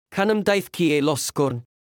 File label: Welsh proverb